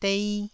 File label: "dei1", Taiwanese syllable